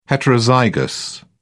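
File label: "heterozygous", English, British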